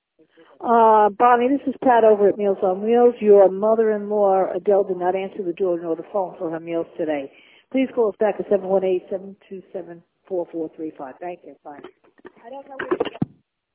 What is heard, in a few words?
voicemail